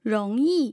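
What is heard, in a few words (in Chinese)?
"róngyì", Chinese; tough sounds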